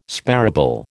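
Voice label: "sparable", American English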